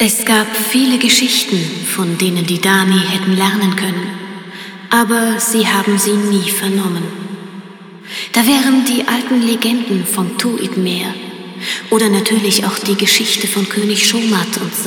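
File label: Yeesha Speech german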